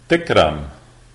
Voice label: you're welcome, lebanese arabic